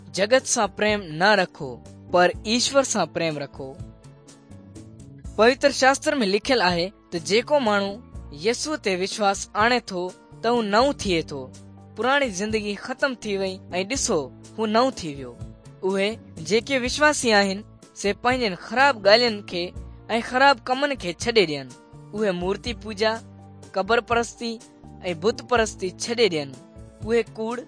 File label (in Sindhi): good news sindhi dukslinu, drama